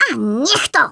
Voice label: BUMMER, Speech, Polish; Worms Armageddon